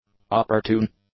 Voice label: "opportune", English; adjectives

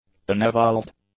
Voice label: "unevolved", english; adjectives

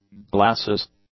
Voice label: "glasses", English; nouns

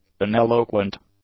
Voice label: "uneloquent", English; adjectives

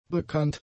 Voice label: "bekannt", German; adjectives

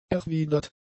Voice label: "erwidert", German; adjectives

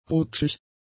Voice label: "rutschig", German; adjectives

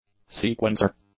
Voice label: "sequencer", English; nouns

